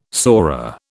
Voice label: "sora", British